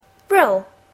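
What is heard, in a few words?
"roll", English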